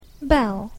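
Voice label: "bell", English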